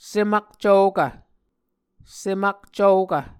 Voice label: "sem akcvokv", Muscogee

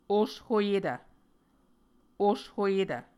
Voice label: "oshoyetv", Muscogee

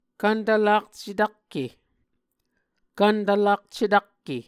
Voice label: "kvntvlak cetakke", Muscogee

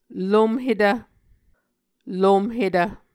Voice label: "lomhetv", Muscogee

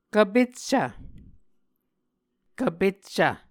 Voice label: "kvpeccv", Muscogee; Mvskoke Words with Repeated Consonants; Onvkv Ohhvyayices

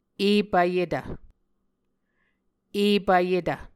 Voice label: "epvyetv", Muscogee

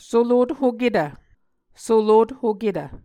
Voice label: "solothoketv", Muscogee

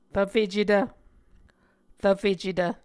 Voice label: "rvfecetv", Muscogee

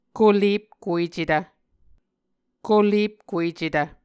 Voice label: "kolepkuecetv", Muscogee

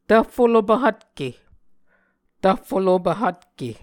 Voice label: "tvffolopv hvtke", Muscogee; Mvskoke Words with Repeated Consonants; Onvkv Ohhvyayices

